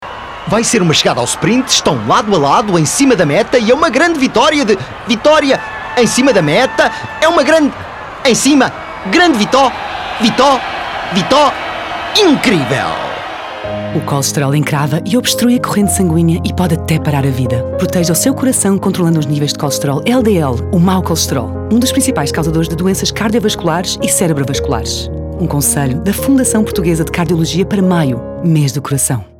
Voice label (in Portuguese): SPOT RADIO; CORRIDA